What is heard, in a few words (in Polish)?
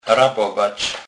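"rabowac", Kurpie dialect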